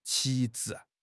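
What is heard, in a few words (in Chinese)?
"旗帜", Chinese; HSK; HSK6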